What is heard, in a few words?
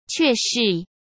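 "却是" Mandarin Chinese; hsk; chinese-flashcards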